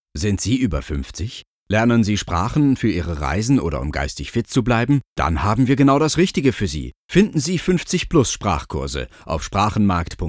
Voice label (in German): 50plus tiefer, Radiowerbung; Sprachenmarkt